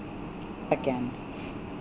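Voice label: "again", Canadian female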